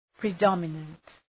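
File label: "predominant", English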